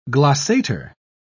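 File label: "glossator", English, British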